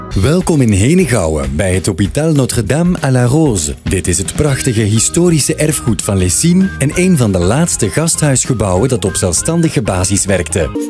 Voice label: flemish tv shows